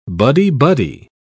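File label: "buddy-buddy", English, British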